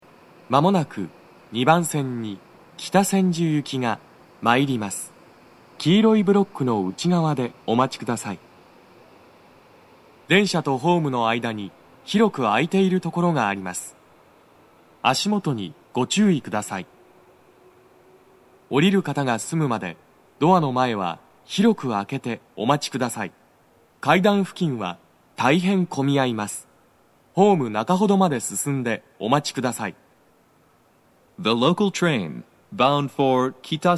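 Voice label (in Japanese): 接近放送, 混雑付帯, 男声; 放送は「北千住行 (混雑付帯)」です。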